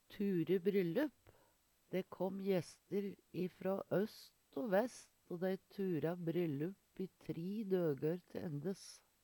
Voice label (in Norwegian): "ture bryllup", Numedalsmål